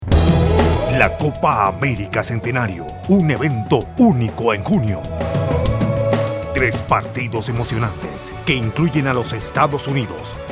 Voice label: male spanish peruvian neutral spanish latam sports promo